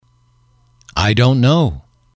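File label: i dont know, English, male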